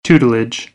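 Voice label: "tutelage", American, English; AmericanBritish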